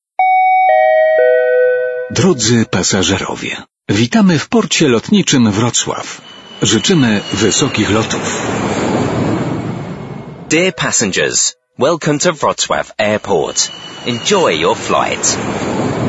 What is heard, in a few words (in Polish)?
Airport KOMUNIKAT; Airport Wroclaw